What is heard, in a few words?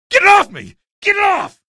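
citizen alert head, male; vo; npc; source engine; episodic; episode 1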